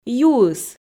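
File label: "юыс", Komi, female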